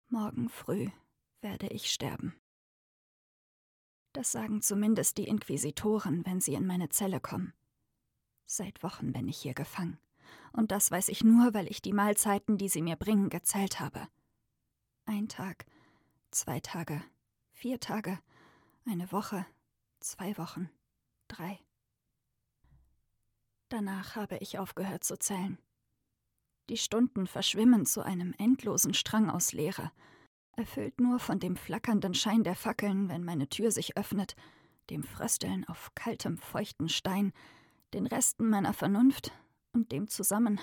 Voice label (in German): Hoerprobe Fantasy Kerker, sprecherinnen; Stimmen Weiblich